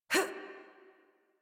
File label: Adult Operator Jump, en; Warframe; New War